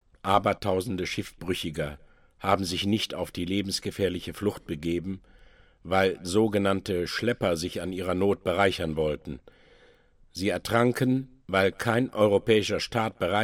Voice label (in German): ansage